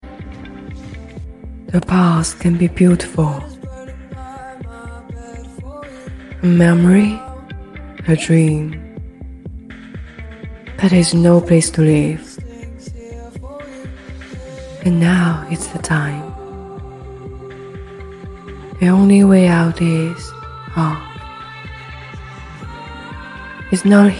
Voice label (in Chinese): 英语 香水 广告; 迪奥